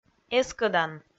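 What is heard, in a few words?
"эскӧдан", Komi, female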